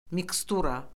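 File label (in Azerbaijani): "mikstura", Azerbaijani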